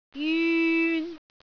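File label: "jyun3", Cantonese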